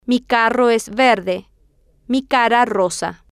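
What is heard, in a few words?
mi carro es verde mi cara rosa, Spanish, female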